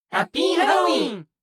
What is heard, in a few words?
Happy Halloween group